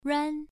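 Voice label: "ruan" Mandarin Chinese, first tone, pronunciation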